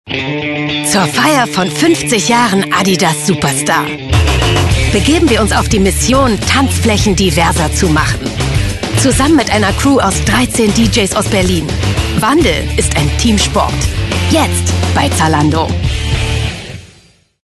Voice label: Werbung etwas rotzig

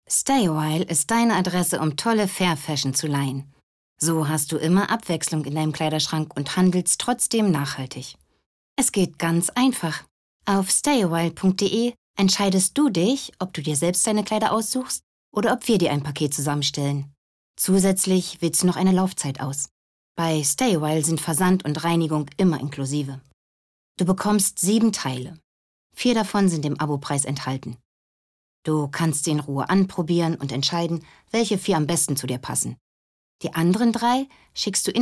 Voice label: Commercial Onlinehandel Tutorial

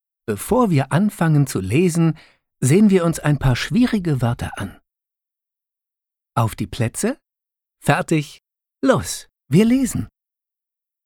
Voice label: Lernapp

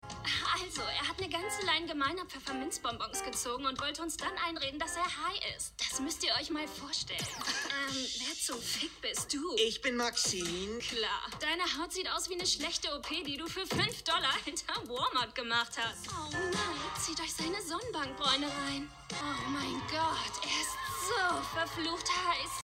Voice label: Synchron, Lip-Sync, German; voice; "Helluva Boss" - Serie | Episodenrolle: Carla

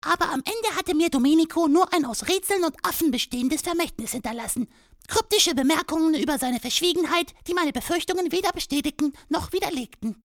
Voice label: trickstimme

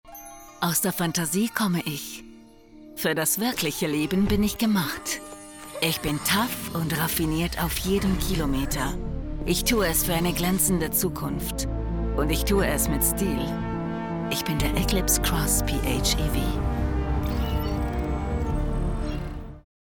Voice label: Kinospot; Mitsubishi

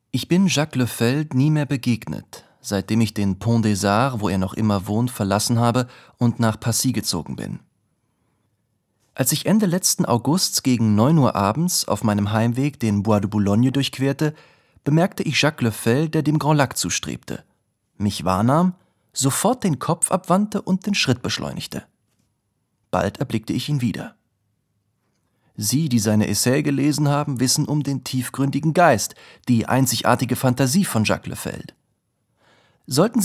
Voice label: Hoerbuch; Proust; Der Fremde

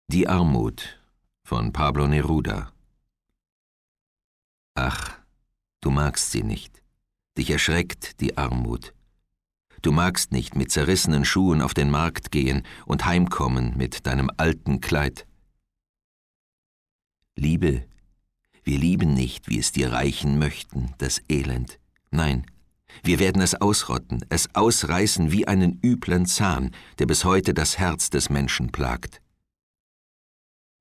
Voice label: erzaehlung; LOFT VOICES

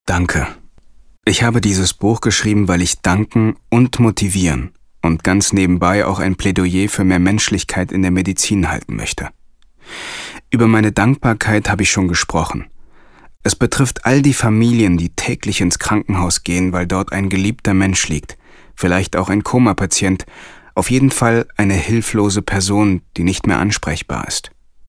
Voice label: Hoerbuch; LOFT VOICES

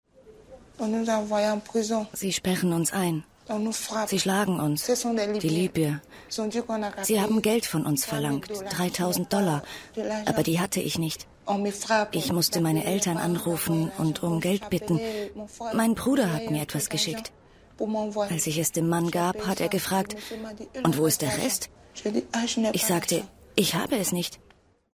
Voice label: Reportage Over Voice; Arte; Endstation Lybien; LOFT VOICES

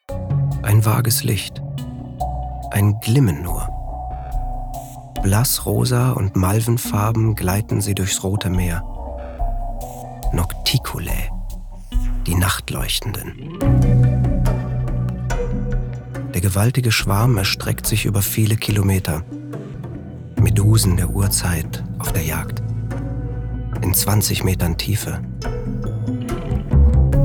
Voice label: Doku

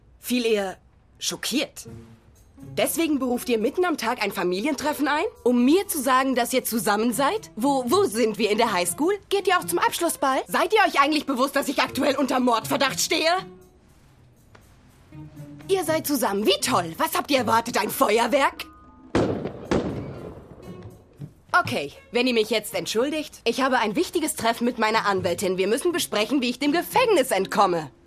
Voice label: amerik. Serie Sync

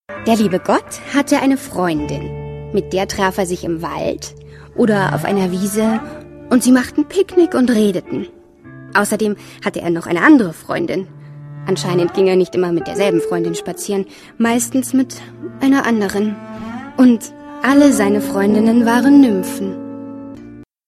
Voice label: Hörspiel

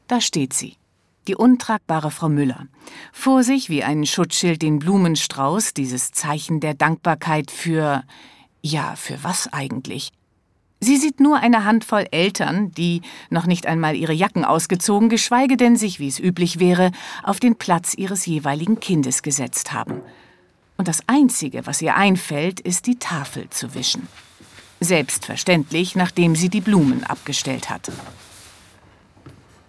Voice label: Hoerspiel; Frau Mueller muss weg

